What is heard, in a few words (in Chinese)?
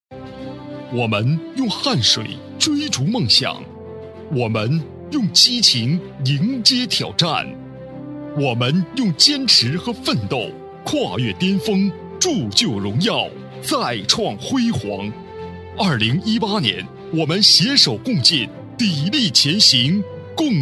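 专题解说词; 腾飞大湾区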